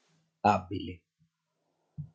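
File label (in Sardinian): "Àbbili", Sardinian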